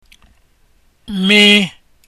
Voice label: m letter, igbo